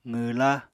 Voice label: "qwwla", Apatani